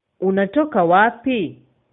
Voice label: where from swahili